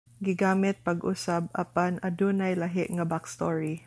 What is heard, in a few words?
Cebuano